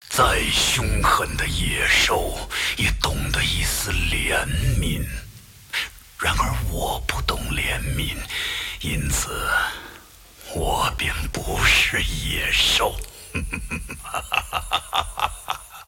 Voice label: move, zh